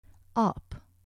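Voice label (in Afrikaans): "aap", Afrikaans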